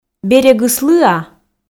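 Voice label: "берегыс лыа", Komi, female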